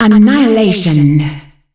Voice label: "SKAARJ annihilation", announcer female; Unreal Tournament; ut2004

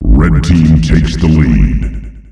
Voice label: "Red Team takes the lead", announcer male; Unreal Tournament; ut2004

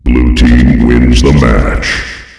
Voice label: blue team wins, Announcer Voice, male; Unreal Tournament; ut2004

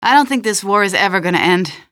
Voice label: question, female; Half-Life 2

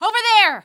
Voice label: over there, female; Half-Life 2

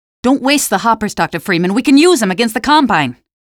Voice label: mine help, male; Half-Life 2; streetwar; barricade

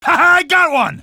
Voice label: got one, male; Half-Life 2

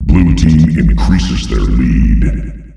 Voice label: Blue Team increases their lead, announcer male; Unreal Tournament; ut2004

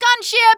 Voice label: gunship, female; coast; barn; Half-Life 2 Voice Lines

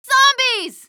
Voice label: zombies, female; Half-Life 2

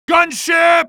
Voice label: male; coast; barn; gunship

